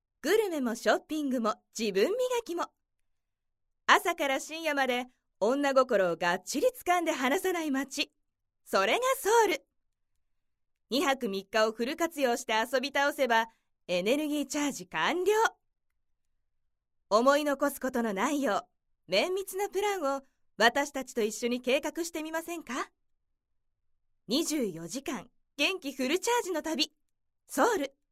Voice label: narration